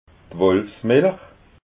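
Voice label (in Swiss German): "wolfsmilch", Alsatian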